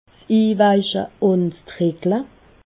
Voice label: "drickle", alsace